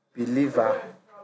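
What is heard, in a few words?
"believer", Nigerian Pidgin, male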